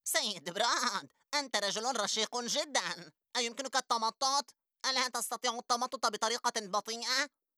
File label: Cartoon